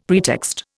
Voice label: "pretext", Indian; Dictionary